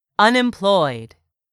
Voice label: "UNEMPLOYED", English; 3000 words